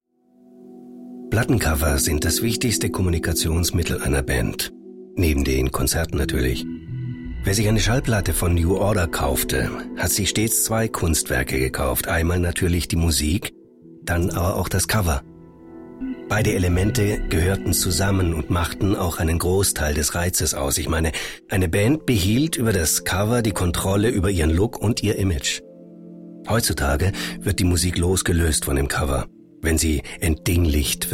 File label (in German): reportage